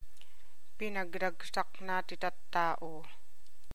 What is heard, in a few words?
Ilocano